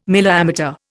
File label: "milliammeter", Indian